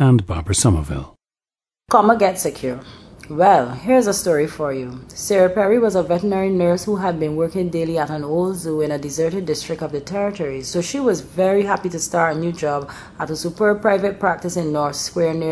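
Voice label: barbados, International Dialects of English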